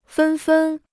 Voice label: "纷纷", Chinese